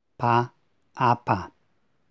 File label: p aspirated